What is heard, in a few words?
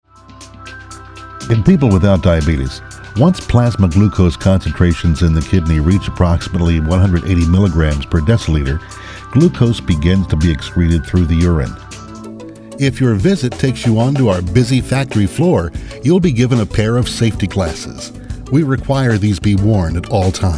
corporate